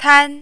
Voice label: "tan1", Mandarin